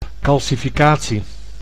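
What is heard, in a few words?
"calcificatie", Dutch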